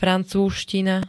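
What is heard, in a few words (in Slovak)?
"francúzština", Slovak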